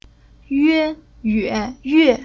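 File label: "Yue", Chinese syllable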